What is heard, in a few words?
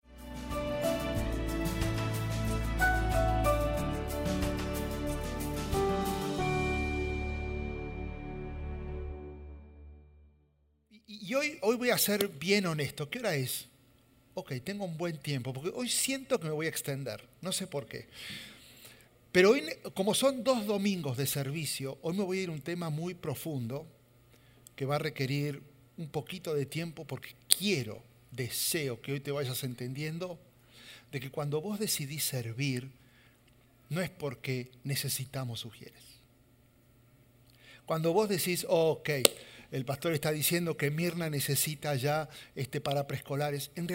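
SERMON SPAN, Spanish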